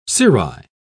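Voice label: "cirri", British English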